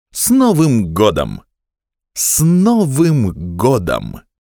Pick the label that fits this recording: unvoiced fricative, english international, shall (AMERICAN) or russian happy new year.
russian happy new year